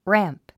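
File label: "ramp", English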